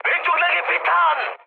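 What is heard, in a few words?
Korean left wing mood high, speech; War Thunder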